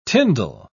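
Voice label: "tindal", British English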